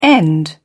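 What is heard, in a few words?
"end up", British English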